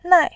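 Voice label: "nai", Mandarin, tone 4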